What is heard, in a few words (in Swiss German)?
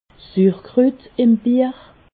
"suurkrut", Alsace